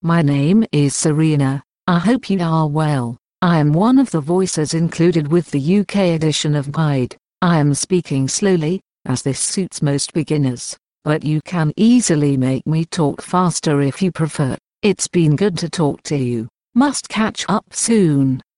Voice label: 85 Speed UK; Serena